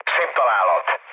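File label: Hungarian bomb success mood low, speech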